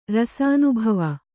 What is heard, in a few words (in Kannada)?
"ರಸಾನುಭವ", Kannada